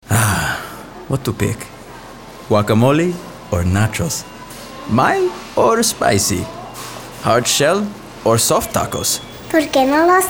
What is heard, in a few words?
Radio; Old El Paso